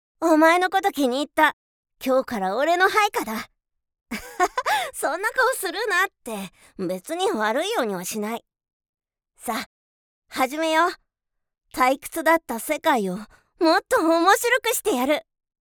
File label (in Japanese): セリフ 無邪気な少年